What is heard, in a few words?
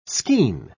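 "skean", British English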